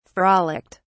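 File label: "frolicked", British English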